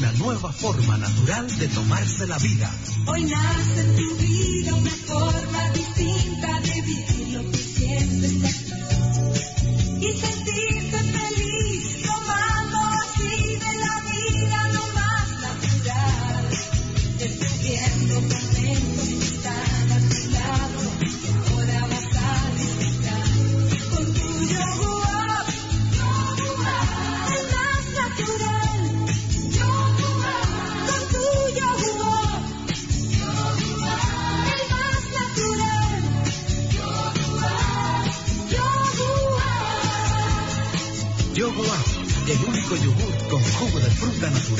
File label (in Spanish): PODCAST COMERCIAL